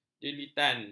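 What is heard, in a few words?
"jelilatan", Malay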